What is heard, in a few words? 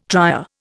"trier", Indian